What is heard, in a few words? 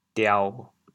"diao1", chaoyang